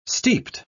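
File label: "steeped", British English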